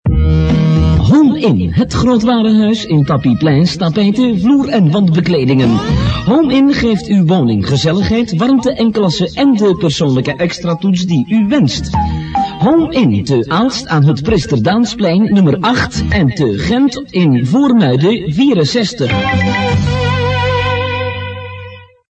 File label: commercial